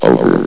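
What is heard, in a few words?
"over", male synthesized announcer voice; blizzard; hlvox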